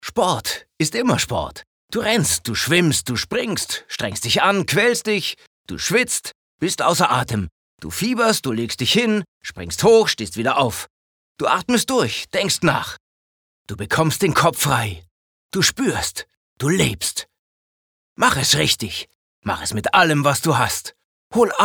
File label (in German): Dynamisch; Move